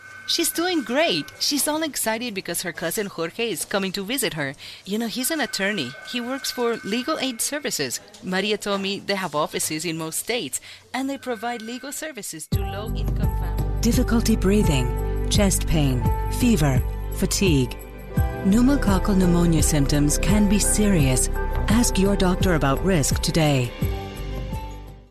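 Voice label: Spanish Accent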